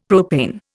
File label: "propane", Indian; Dictionary